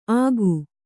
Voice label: "ಆಖು", Kannada